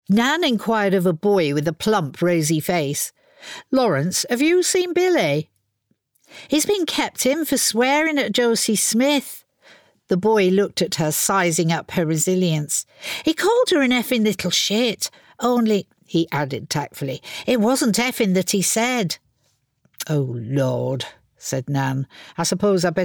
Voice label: Bookread; Grandmothers

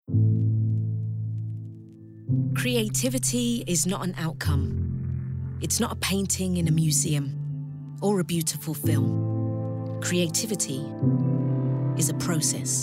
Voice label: Commercials montage

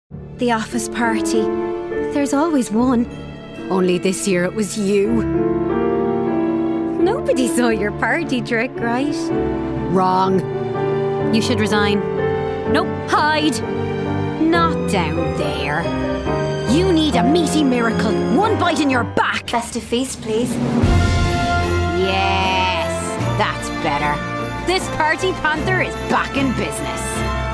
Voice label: TV ad; SUBWAY®; Festive Comfort; ROI

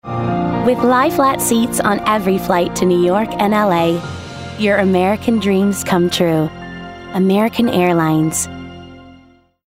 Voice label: Commercial; American Airlines

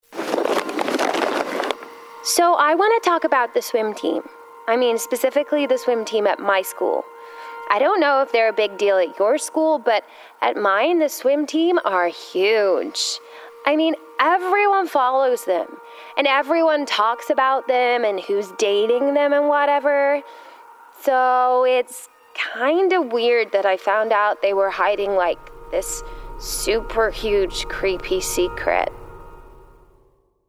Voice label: Gaming Teen Character US; Teen Character – American

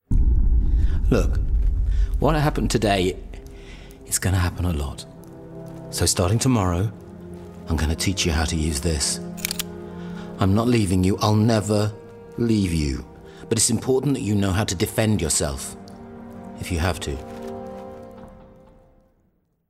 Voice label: Gaming Everyman

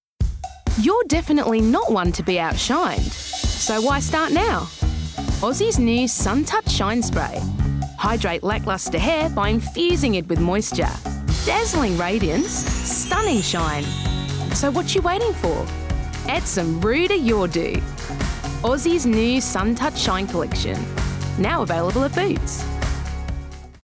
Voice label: Australian; Sun Touched Shine

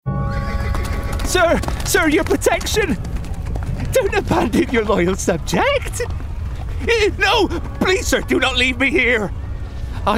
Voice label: Gaming Peasant West Country

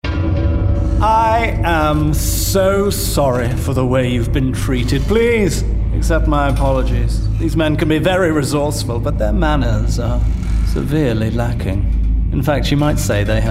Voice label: Gaming Villain RP

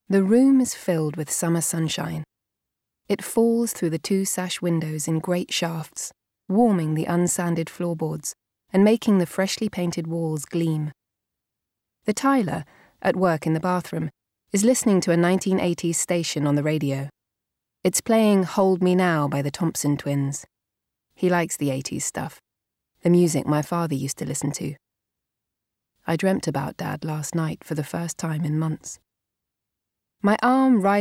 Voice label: Audiobook; Invite Me In

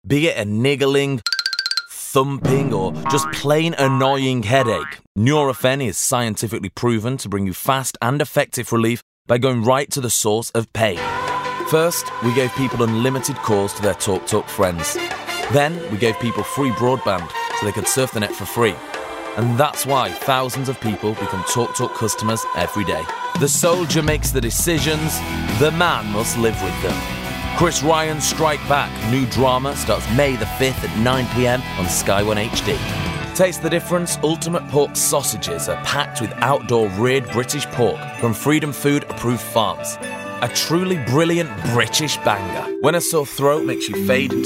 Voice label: Commercial Showreel

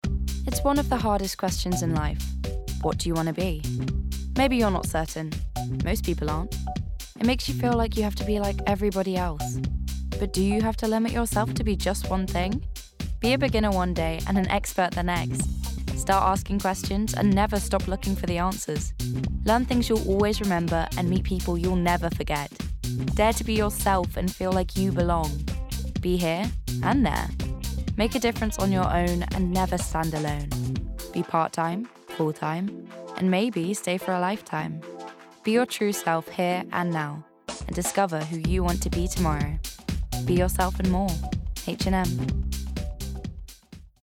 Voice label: Commercial; H and M

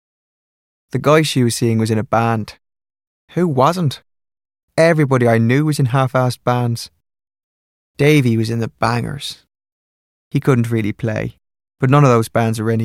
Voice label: Southern Irish; Port Authority

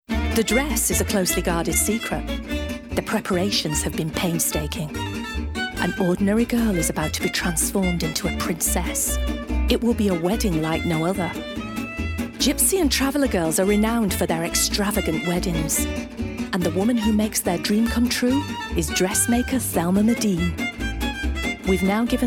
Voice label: Doco; Gypsy Wedding

